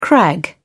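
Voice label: "crag", British English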